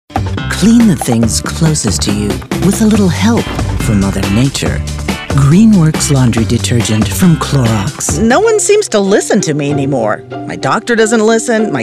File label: locutora norteamericana north american voiceover